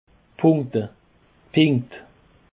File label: "punkte", Alsace